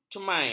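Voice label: "cemamai", Malay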